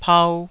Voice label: "pau2", taiwanese